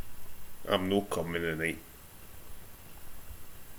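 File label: "comin", Scottish slang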